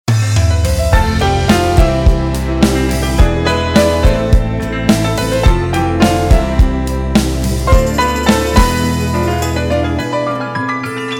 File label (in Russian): diktor; dictor